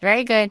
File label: Gnome Male Young NPC Farewell